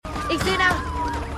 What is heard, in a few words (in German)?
Polizistin; The Lincoln Lawyer